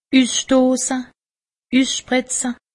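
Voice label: "usstosse", Alsatian; medecine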